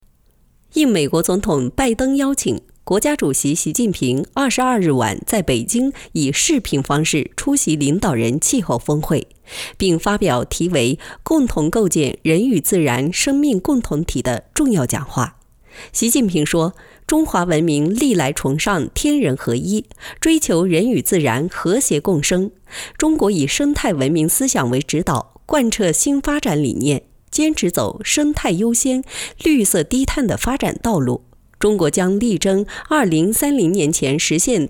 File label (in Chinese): 新闻气候峰会